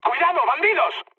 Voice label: enemy detected, mood high, speech; War Thunder

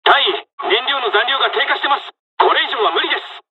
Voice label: dialog, dialogs japanese; us midway; War Thunder

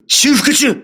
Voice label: repairing, voice message, crew ships japanese

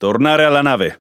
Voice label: Speech Pilots Bomber Land, IT; World Of Warships

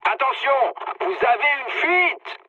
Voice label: damaged water mood med, speech fr; War Thunder

